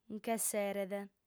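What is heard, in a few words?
"nc'esseret", Sardinian, female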